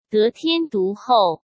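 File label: "得天独厚", Mandarin Chinese; hsk; chinese-flashcards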